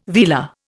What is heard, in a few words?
"velar", Indian